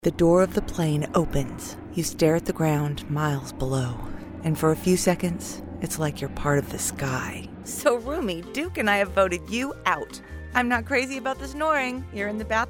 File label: Commercial